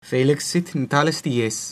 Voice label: birthday, latin